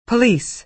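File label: "police", American English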